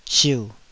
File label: "siu3", Taiwanese